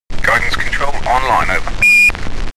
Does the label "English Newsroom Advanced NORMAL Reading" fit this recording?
No